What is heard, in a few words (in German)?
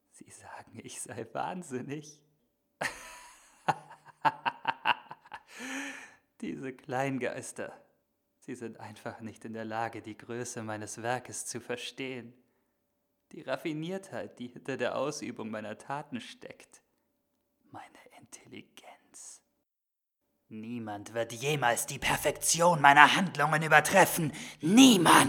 Irre, Schauspiel